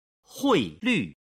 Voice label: "hui lv", Chinese